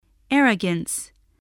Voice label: "arrogance", English